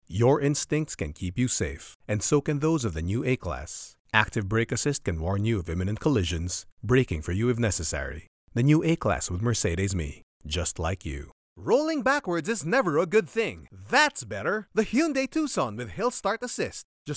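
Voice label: English Accented Male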